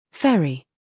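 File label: "scandal", American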